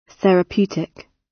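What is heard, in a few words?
"therapeutic" British English; Time, history; Thời gian, lịch sử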